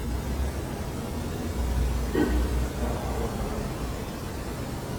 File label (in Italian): Colpo voce maschile indefinita; Castello Este